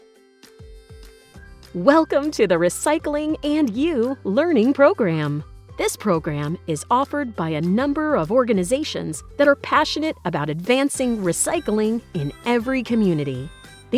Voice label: Elearning